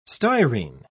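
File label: "styrene", English, uk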